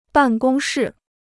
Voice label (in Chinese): "办公室", Chinese